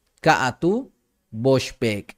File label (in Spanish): dos perros negros - Ka'atúul box peek', Maya